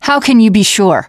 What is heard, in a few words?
teen girl